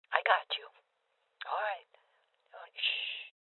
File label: Voices Heal Female Old; rebel cops 2019 gamerip